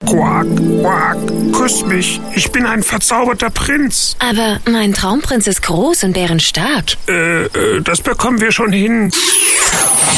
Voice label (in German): DEUTSCH MALE Werbung Rollen Frosch; Volkswagen T5; AH Pattusch